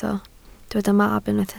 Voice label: "mab", Welsh